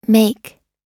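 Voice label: "make", US English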